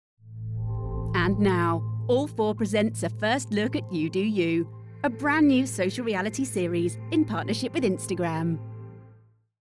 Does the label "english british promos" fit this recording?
Yes